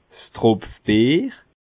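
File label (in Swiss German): "tropfbier", Bas Rhin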